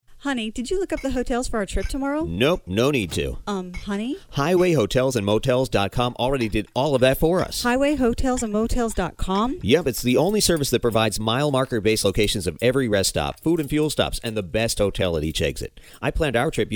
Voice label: radio ad